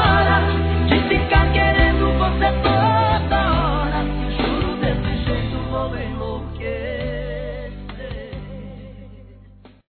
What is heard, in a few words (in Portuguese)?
Deixe me amar você, Telemensagens, Amor, Fem